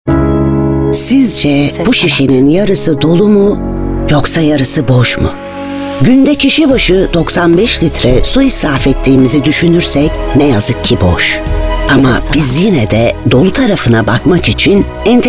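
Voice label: Turkish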